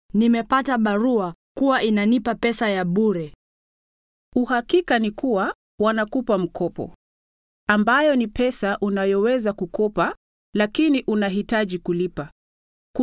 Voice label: swahili; credit